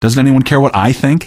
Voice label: question, male; Garry's Mod; hl2

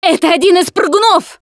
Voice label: warn hunter, russian, Survivor Voice Producer

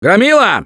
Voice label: Warn Charger, russian; Gambler

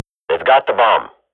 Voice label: radio bot bomb pick, swat; Global Offensive; player vo

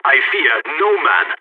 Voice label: on a roll brag, balkan; Counter-Strike Global Offensive

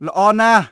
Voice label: Khmer Order; Beyond the Sword; Units

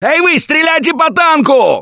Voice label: battlecry tank, russian; Gambler

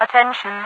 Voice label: "attention", radiovoice; Garry's Mod; hl2; overwatch

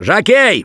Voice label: warn jockey, russian; Gambler

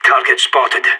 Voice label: radio enemy spotted, player vo sas

